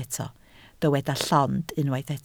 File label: "llond", Welsh